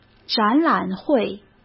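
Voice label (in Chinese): "展览会", Mandarin; chinese-flashcards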